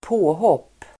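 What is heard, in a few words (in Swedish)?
"påhopp", Swedish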